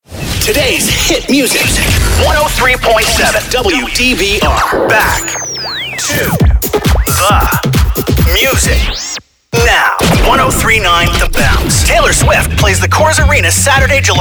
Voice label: Radio Imaging CHR

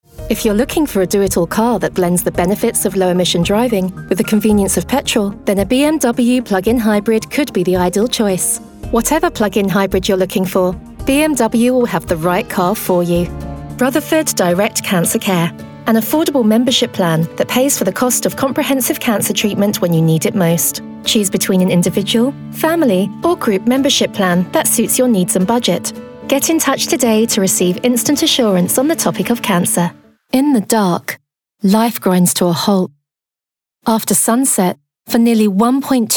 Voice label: Corporate

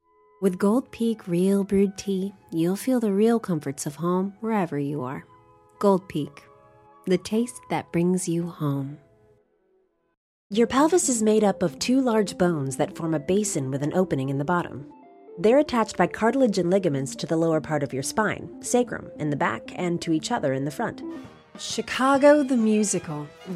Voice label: commercial

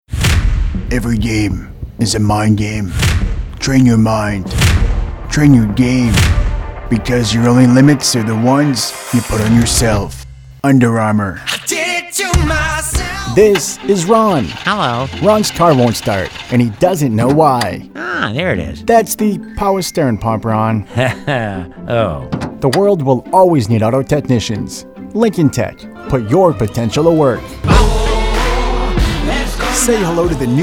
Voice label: Commerical

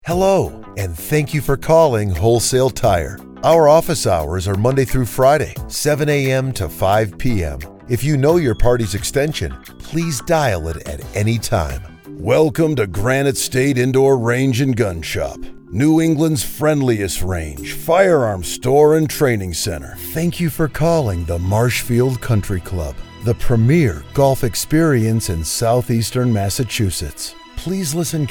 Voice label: Phone

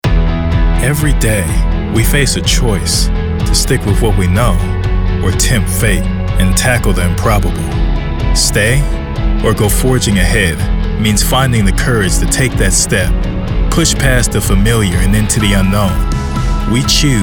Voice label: Narrative

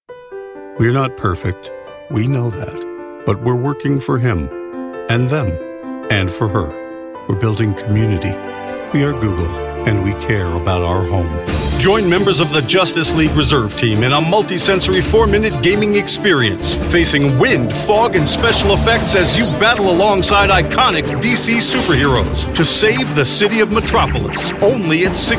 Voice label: Commercial

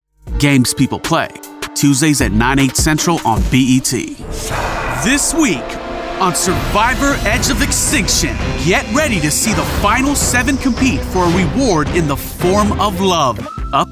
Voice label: Promo

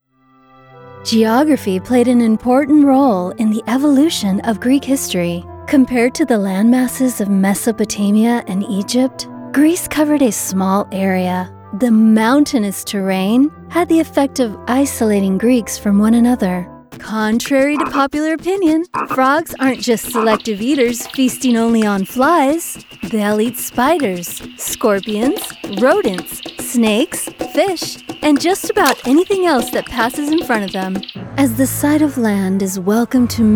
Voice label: Narration

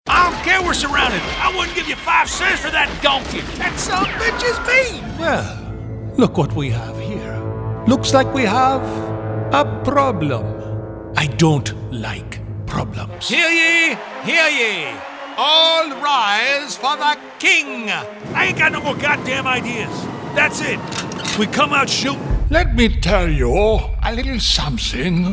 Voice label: Character

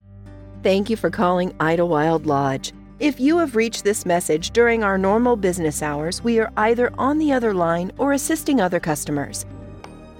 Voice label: IVR

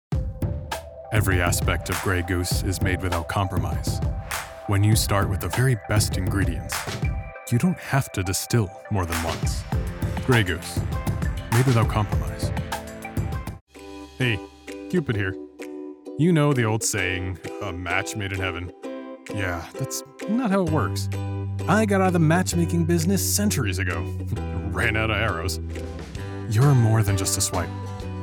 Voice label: Commercial

